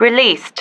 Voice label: "released", female